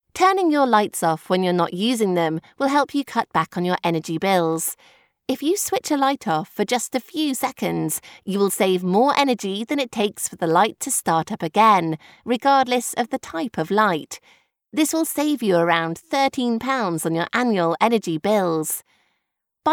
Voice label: english british corporate videos